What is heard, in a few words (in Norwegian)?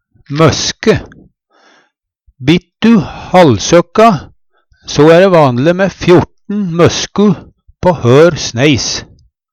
moske, Numedalsmål